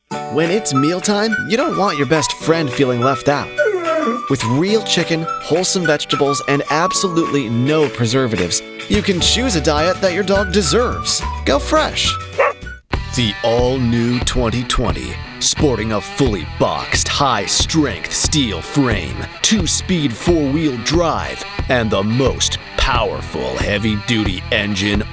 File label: Commercial